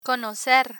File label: "conocer", Spanish; irregular spanish verbs; learn spanish